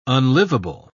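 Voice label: "unlivable", English, British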